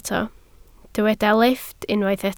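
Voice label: "lifft" Welsh, spoken word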